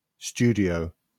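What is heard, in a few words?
"studio", British English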